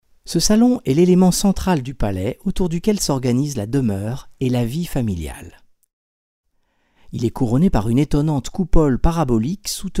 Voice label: Audioguide; Gaudi